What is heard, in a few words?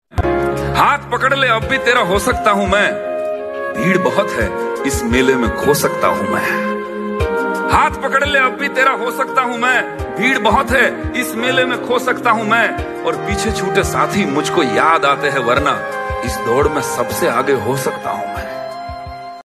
Shayari Ringtone